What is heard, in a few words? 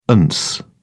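"ance", English, British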